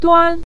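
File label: "duan" Mandarin Chinese, neutral tone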